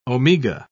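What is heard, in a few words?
"omega", English, British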